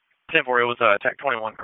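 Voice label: EMS DISP, radio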